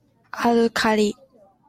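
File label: "alkali", Japanese, female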